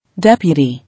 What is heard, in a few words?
"Deputy", English